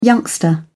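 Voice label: "youngster", British English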